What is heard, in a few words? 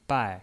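"bai", Mandarin Chinese, fourth tone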